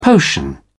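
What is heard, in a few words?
"potion", British English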